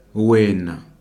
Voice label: "wena" you, ndebele